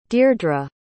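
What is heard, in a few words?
"dierdra", English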